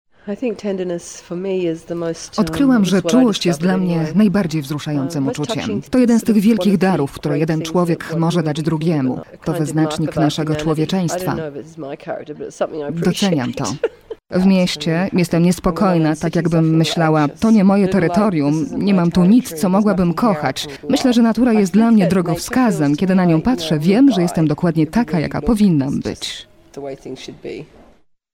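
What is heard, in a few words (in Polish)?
VOICEOVER, female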